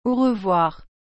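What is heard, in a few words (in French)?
"Au revoir", French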